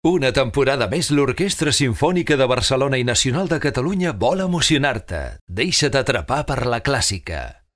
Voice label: comercial catalán

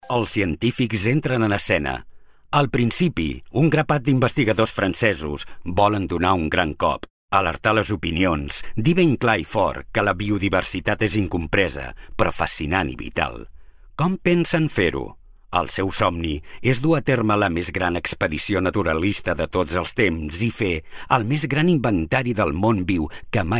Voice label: narración catalán

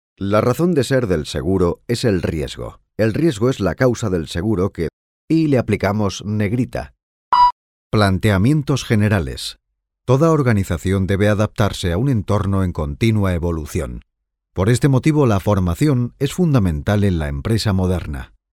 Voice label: narrativa

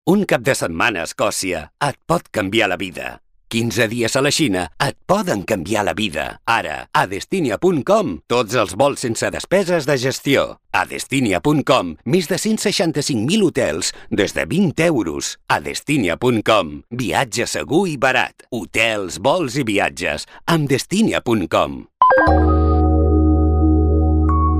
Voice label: comercial catalán

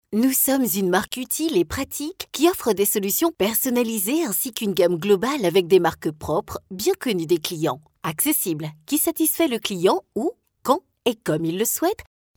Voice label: narración francés

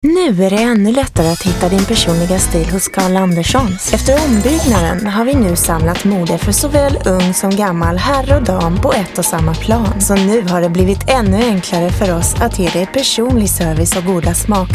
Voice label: comercial sueco

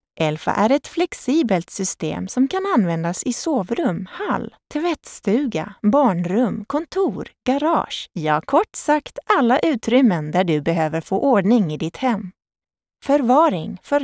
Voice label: narración sueco

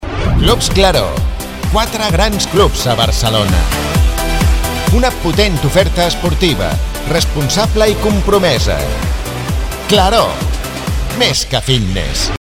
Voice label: comercial catalán

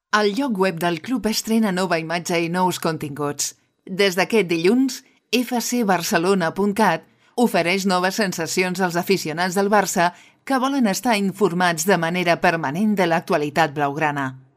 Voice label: narración catalán

